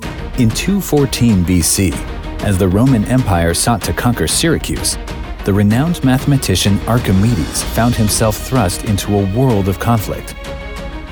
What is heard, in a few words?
Documentary Narration; Archimedes